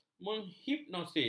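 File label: "menghipnosis", Malay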